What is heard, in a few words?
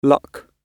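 "luck", British English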